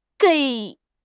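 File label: "gei3", Chinese; HSK1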